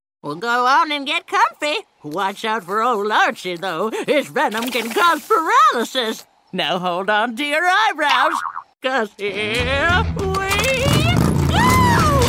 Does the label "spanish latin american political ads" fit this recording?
No